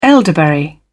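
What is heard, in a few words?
"elderberry", British English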